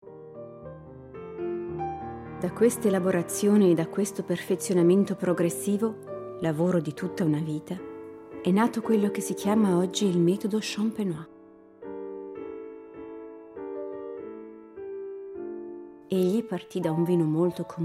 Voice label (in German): Brand Story italienisch